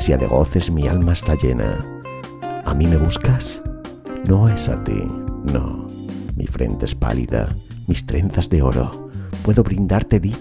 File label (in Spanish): soy ardiente poema romantico; becquer